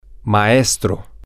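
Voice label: teacher, spanish, male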